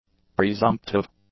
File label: "presumptive", english; adjectives